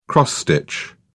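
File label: "cross stitch", British English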